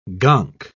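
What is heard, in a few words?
"gonk", British English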